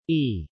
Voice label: "E", male